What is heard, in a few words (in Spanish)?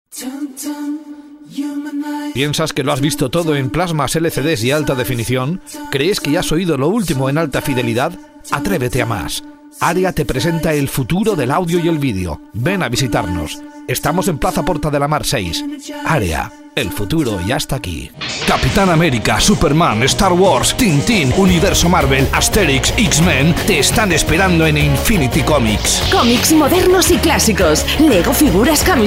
comercial